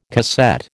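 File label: "cassette", American English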